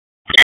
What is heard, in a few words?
Police District Tac, p25; Raleigh; Downtown; wake